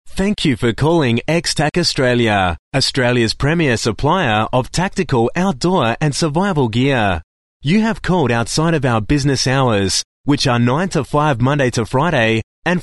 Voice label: IVR sample

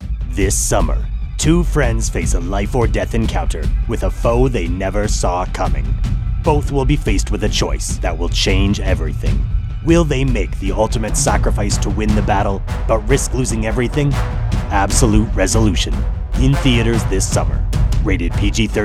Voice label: movie trailer

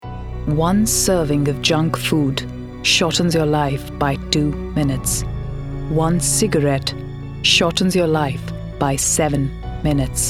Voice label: Ad

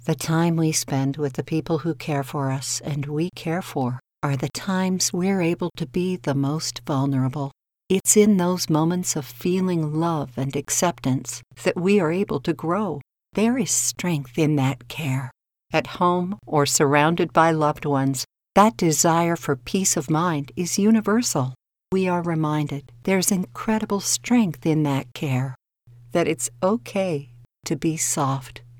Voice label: Soft

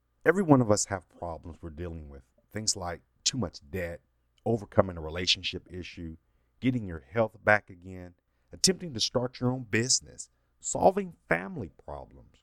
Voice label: NARRATOR

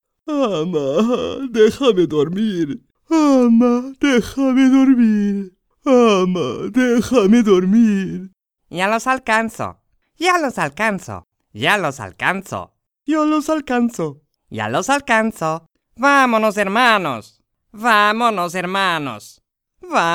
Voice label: Animation Characters Spanish

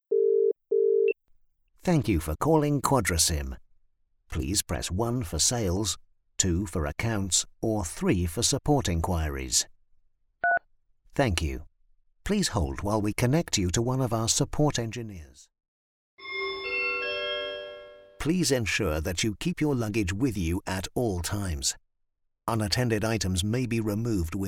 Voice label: ivr safety

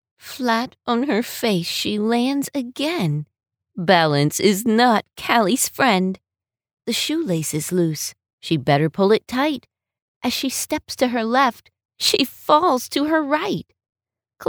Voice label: Children s story telling

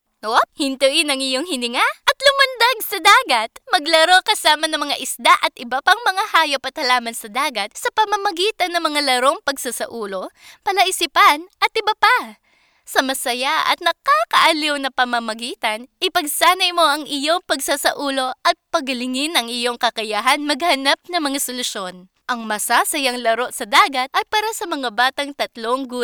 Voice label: Game Tagalog

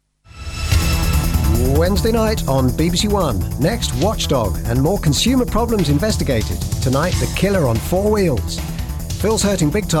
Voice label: voiceover reel

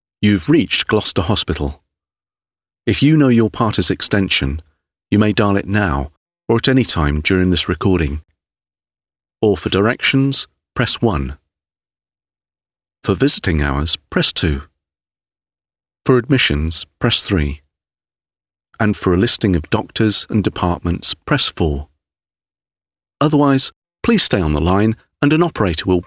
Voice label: ivr

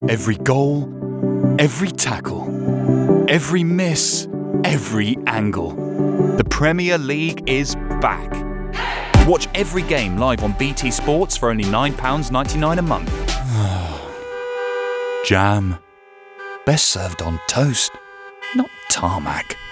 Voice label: Commercial Compilation

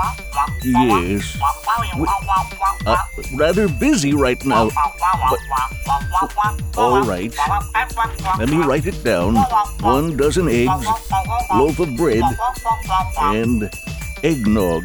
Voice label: Santa Claus Voice Over

